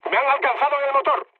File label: damaged engine, mood med, speech; War Thunder